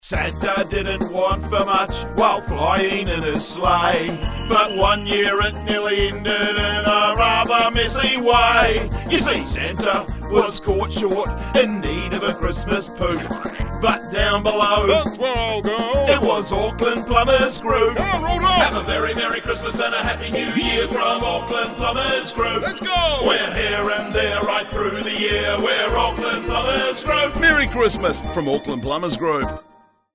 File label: Radio advert; Santa caught short